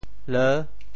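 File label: "leu6", Teochew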